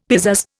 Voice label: "pizazz", Indian; Dictionary